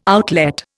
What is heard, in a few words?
"outlet", Indian